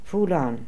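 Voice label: "Foolon", pronunciations; Fourth Way; Beelzebub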